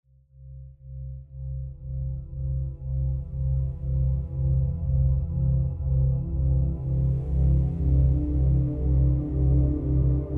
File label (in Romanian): Meditatie Autovindecare Celulara